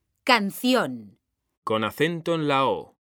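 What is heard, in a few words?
"canción", Spanish, female; mekanta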